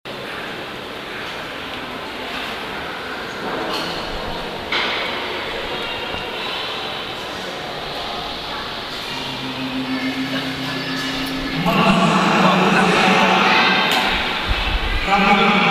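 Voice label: bangkok train station voice echo; 2008 Thailand street recordings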